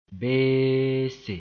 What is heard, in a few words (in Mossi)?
"beese", moore